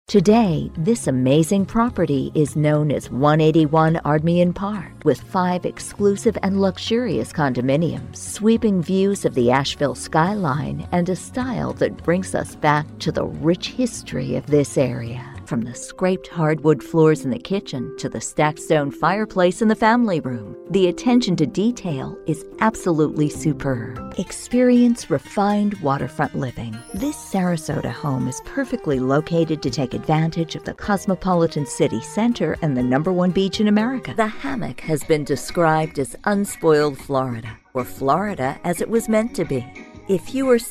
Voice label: english american real estate; United States